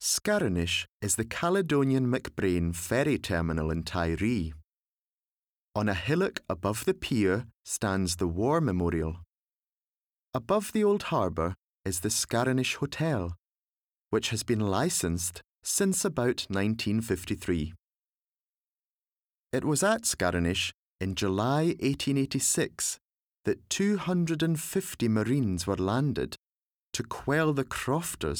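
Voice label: English UK General; GoLocalise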